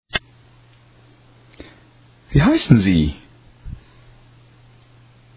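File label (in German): "Wie heissen Sie", German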